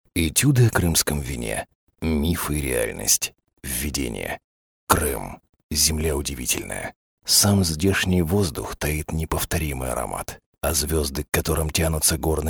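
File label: zg low, Russian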